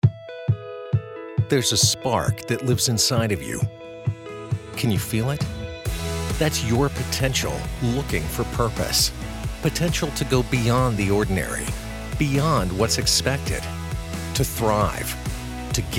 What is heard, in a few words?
Recruitment Radio; Creighton Prep Ignite Your Passion